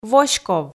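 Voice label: "воськов", Komi, female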